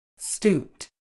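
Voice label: "stooped", British English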